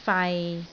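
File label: "fai6", Cantonese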